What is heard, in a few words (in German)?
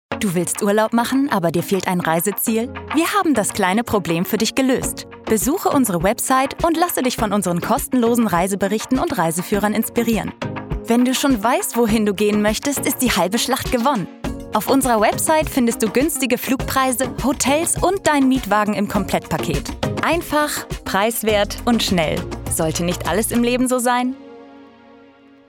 Werbung Reise